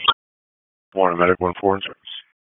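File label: EMS Dispatch, p25; wake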